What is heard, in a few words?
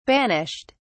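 "banished", British English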